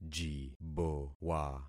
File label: "jibowa", concat syllables; Affixing experiment